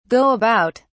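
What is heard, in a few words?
"go about", British English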